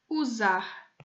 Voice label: "usar", portuguese